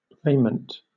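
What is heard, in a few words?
"raiment", British English; pronunciations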